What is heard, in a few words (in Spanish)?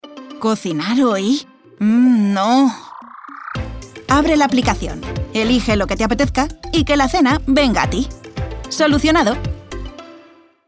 generica casual